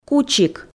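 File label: "кучик", Komi, female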